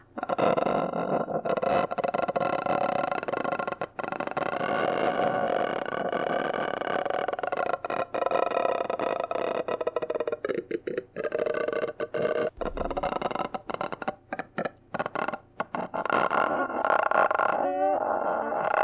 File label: Female Ghost Hunting; Phasmophobia